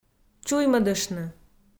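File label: "чуймӧдыштны", Komi-Zyrian, female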